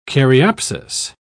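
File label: "caryopsis", English, British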